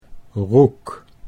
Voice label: "roek", Dutch